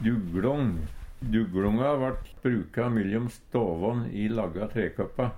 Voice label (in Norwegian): "dugLong", Numedalsmål